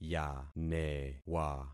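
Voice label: "yanewa", concatenated syllables; Affixing experiment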